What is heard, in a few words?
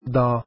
arabic alphabet daad